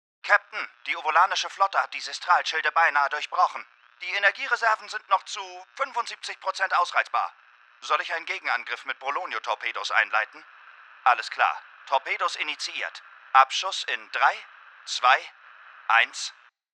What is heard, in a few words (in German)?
Charakter SciFi Starfleetwaffenoffizier; LOFT VOICES